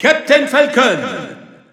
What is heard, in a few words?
French EU Announcer; Captain; SSBU